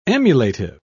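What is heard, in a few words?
"emulative", British English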